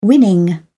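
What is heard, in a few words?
"winning", British English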